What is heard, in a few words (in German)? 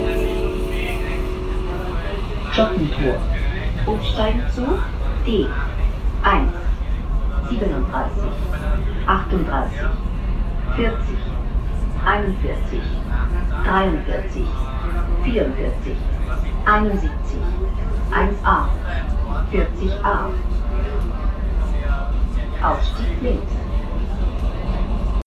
Durchsage in U-Bahn